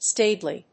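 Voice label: "stably", English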